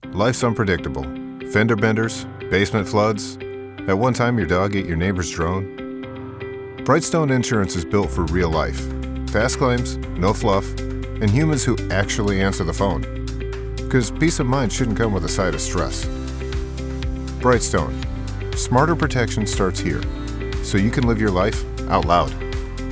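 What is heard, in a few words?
Insurance Commercial; Brightstone Inxurance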